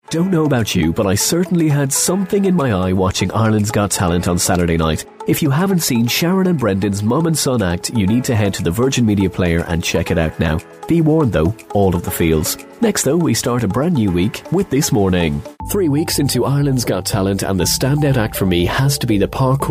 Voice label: irish male tv announcer

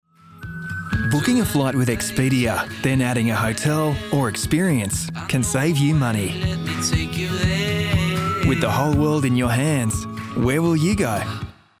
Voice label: australian male warm friendly

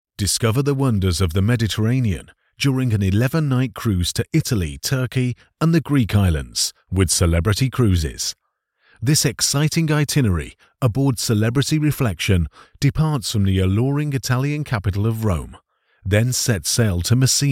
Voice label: documentary

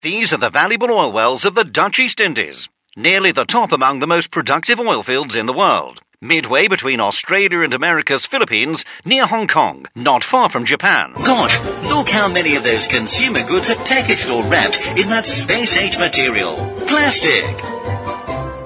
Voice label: 1940s pathe style

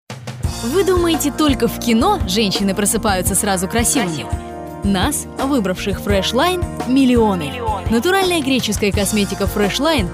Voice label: russian female, commercial

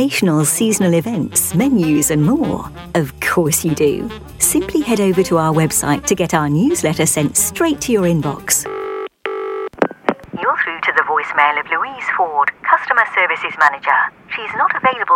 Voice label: telephone ivr on hold

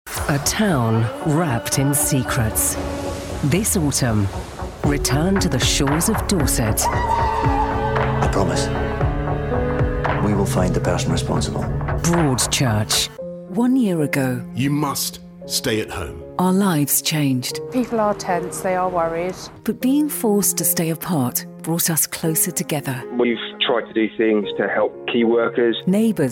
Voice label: promo and imaging

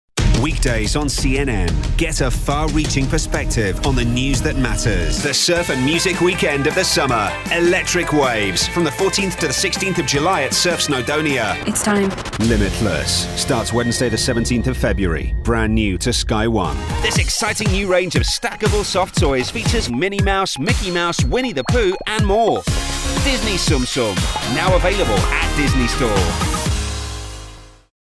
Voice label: vibrant ads and promos

